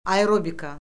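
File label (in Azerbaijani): "aerobika", Azerbaijani; Orfoqrafiya Lüğəti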